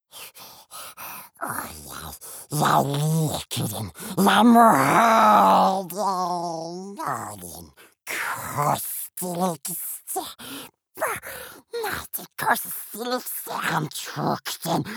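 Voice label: Norwegian dub character; gollum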